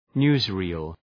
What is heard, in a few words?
newsreel